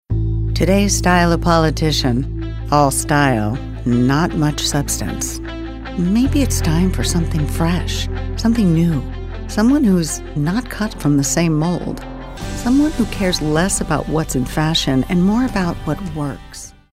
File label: attitude